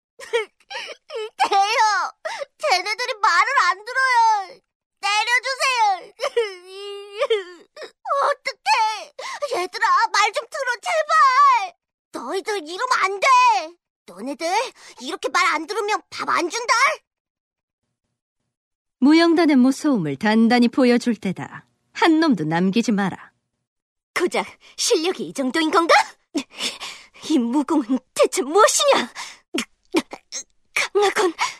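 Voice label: Korean female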